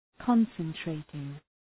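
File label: "concentrating", English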